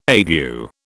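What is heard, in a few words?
"ague", British English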